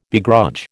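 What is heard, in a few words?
"begrudge", American English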